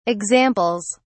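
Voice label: "examples", British English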